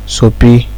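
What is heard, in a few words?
"soapy", Nigerian Pidgin, male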